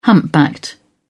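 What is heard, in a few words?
"humpbacked", English, British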